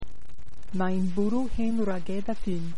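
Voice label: rain, Welsh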